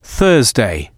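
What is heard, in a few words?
"thursday" male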